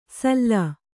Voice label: "ಸಲ್ಲಾ", Kannada

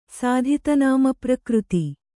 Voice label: "ಸಾಧಿತನಾಮಪ್ರಕೃತಿ", kannada

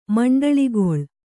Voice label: "ಮಂಡಳಿಗೊಳ್", Kannada

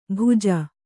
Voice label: "ಭುಜ", Kannada

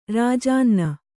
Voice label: "ರಾಜಾನ್ನ", Kannada

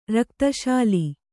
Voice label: "ರಕ್ತಶೂಲೆ", Kannada

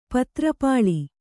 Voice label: "ಪತ್ರಪಾಲಿ", Kannada

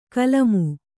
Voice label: "ಕುಲಿಮೆ", Kannada

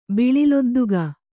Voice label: "ಬಿಳಿಲೊದ್ದುಗ", kannada

